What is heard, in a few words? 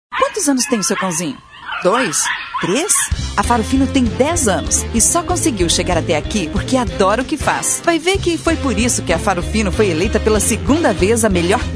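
portuguese female voice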